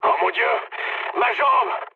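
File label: damaged pilot, mood low, French; War Thunder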